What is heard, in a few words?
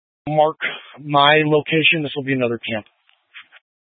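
Incident Tac, Scanner audio recording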